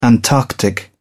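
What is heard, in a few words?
"antarctic", British English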